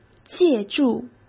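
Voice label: "借助" Mandarin Chinese; hsk; chinese-flashcards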